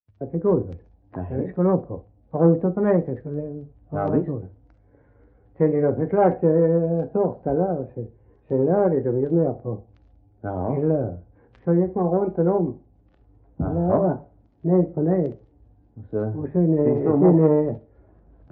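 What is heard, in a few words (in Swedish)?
Röstånga Skåne, Svenska sagor dialekter; Folkminnen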